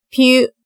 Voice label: "pyu", hiragana